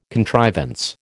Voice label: "contrivance", American